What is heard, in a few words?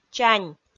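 "чань", Komi, female; kpv audio dict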